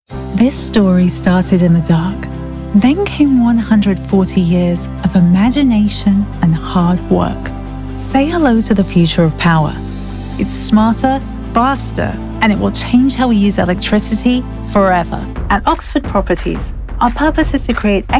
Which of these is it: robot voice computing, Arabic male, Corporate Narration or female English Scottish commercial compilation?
Corporate Narration